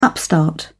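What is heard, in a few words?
"upstart", British English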